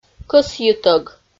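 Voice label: "кӧсйытӧг", Komi, female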